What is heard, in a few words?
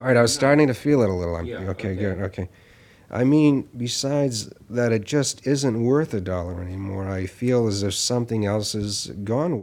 Announcer Process; Tony Schwartz Collection